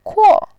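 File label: "kuo4", Mandarin Chinese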